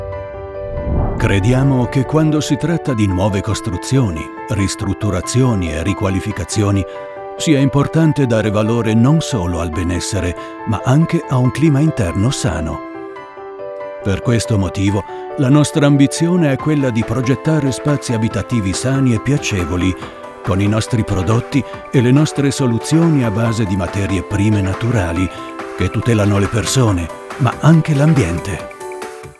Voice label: Produktfilm, Presentation, Italian

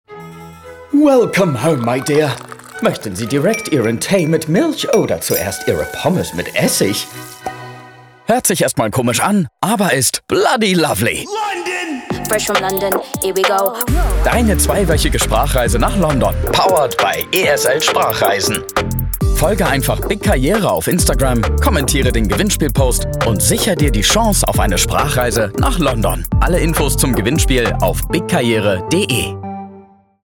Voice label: DEUTSCH RADIO, DE MIT EN AKZENT, Commercial (Werbung); Sprachreisen London; bigFM Radio; ESL

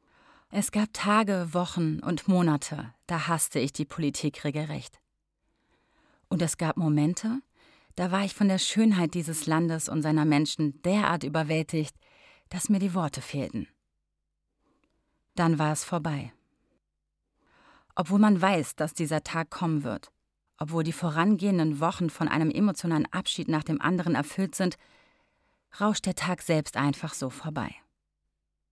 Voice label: Hörbuch Sprecherin

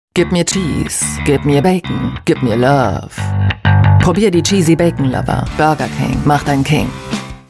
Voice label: WERBUNG; BURGER KING

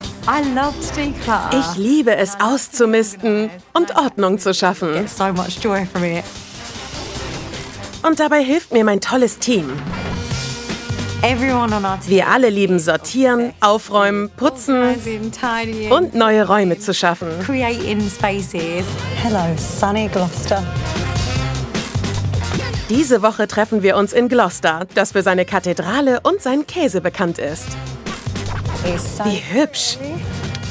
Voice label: Doku VO; Stacey Solomon

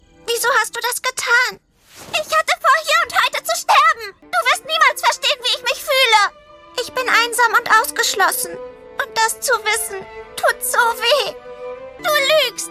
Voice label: Anime Mädchen emotional

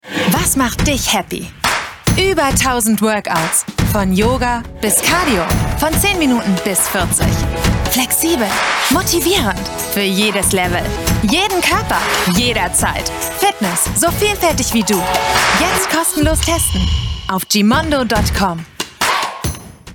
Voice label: Tv Werbung; Gymondo

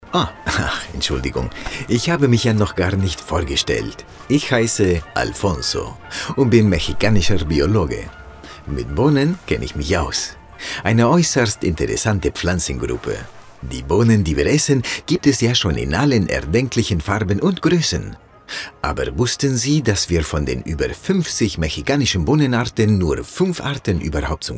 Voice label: Mex Akzent; LOFT VOICES

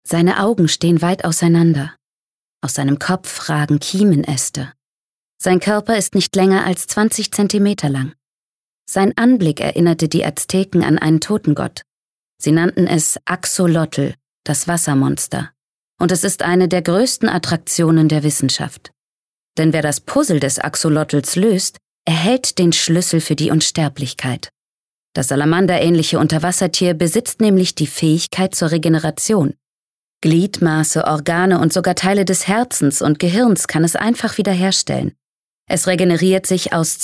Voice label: Dokumentarischer Text; LOFT VOICES

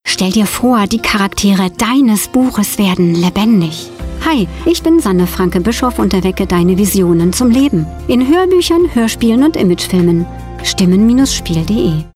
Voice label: Radiospot, Commercial (Werbung), German

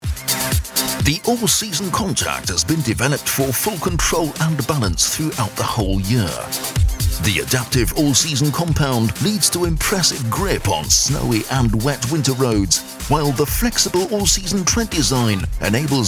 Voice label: Werbung TV engl; Continental All Season Contact; Mediatouch

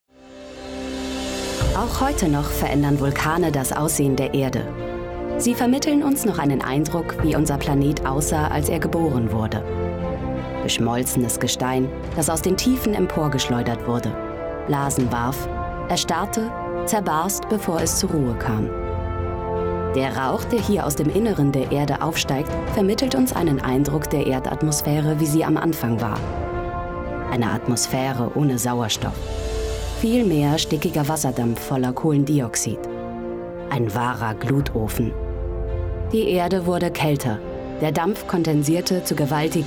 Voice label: Doku sitzend gut Musik

